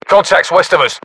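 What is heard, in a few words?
threat infantry west, battlechatter, British; english; uk; localized english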